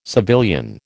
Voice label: "civilian", American

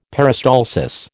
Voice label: "peristalsis", American

